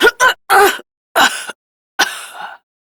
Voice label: Death, Female; Warframe; Railjack; Steel Meridian

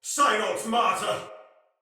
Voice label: See Ally Die, Soldier Male; Sinecure Soldier Male A

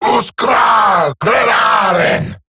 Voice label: Cover Me Eximus Grineer Male; Battle Barks

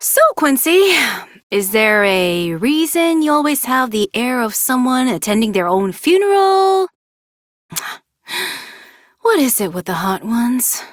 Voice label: Idle Barks, en; Aoi

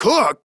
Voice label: Surprised Male, Battle Barks; en; Modular Corpus

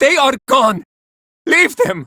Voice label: Villagers Male, Cetus Invasion

